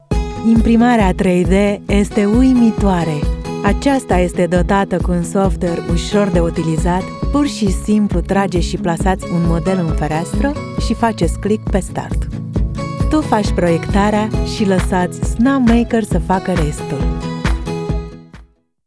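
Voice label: Romanian, general; GoLocalise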